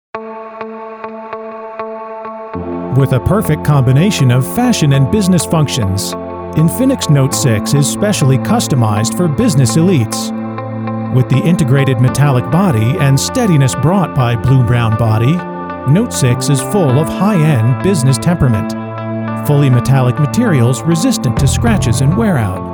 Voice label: 外籍男英 外语 美式英语 科技; INFINIX No6

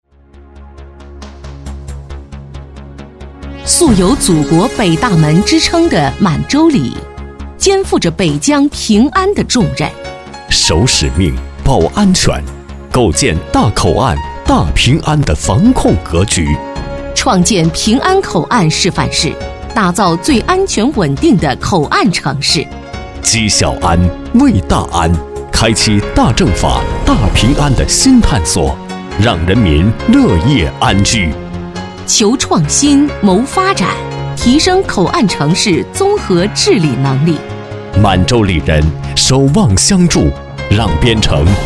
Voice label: 对播 男女对播样音; 满洲里政法

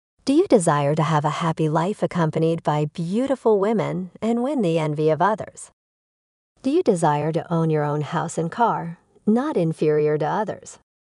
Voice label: 外籍女英 外语 美式英语; Udosy

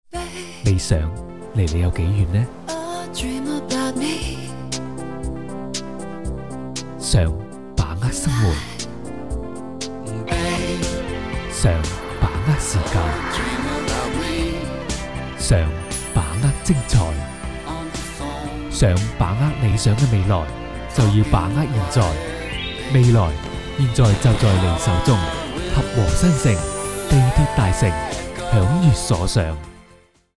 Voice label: 男粤 广告 地产; 粤语; 合和新城

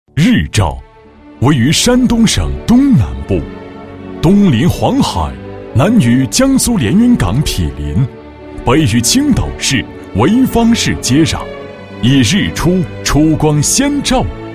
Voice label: 舒缓; 日照法院

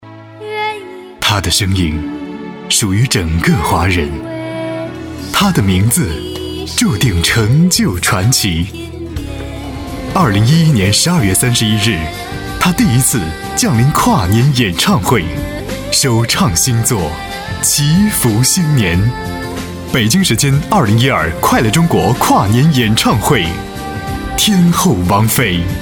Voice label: 广告 片头片花

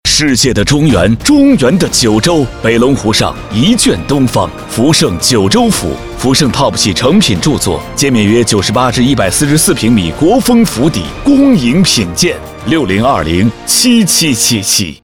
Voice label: 广告 地产 地产广告 激情, 男

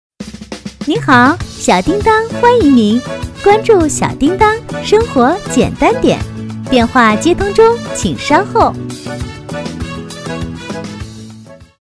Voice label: 其他 彩铃 甜美彩铃

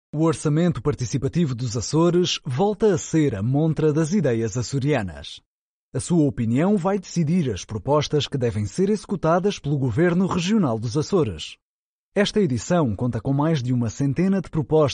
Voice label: 葡萄牙语男 外语 小语种

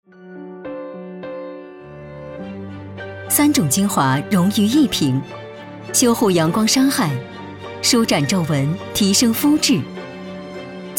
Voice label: 广告 大气, 女, 普通话; 品牌形象; 国际大牌化妆品倩碧

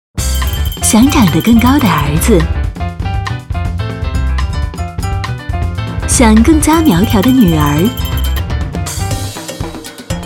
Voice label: 广告 品牌形象 甜美; 九阳品牌

